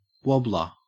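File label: "wobbler", Australian English; pronunciations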